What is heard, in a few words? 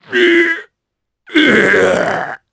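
Vox Dead, Japanese; voices; heroes; Kaulah; kingsraid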